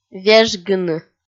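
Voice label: "вежгыны", Komi, female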